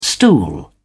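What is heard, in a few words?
"stool", English, British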